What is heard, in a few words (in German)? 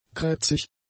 "krätzig", German; adjectives